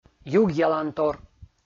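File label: "югъялантор", Komi, male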